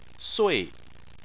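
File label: "sui", Chinese, fourth tone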